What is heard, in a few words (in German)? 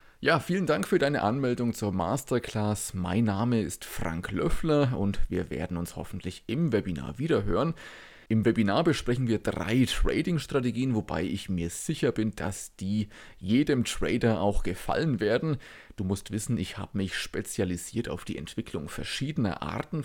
Sprachnachricht